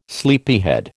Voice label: "sleepyhead", American

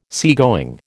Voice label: "seagoing", American

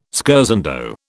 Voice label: "scherzando", British

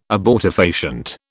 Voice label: "abortifacient", British

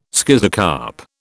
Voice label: "schizocarp", British

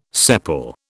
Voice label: "sepal", British

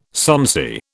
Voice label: "sonsy", British

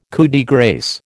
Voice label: "coup de grâce", American

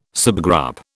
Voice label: "subgroup", British

